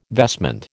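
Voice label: "vestment", American English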